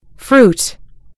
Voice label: "fruit", en GB; gcompris